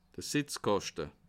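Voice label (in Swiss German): "setzkaschte", Bas Rhin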